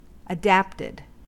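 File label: "adapted", US English; pronunciations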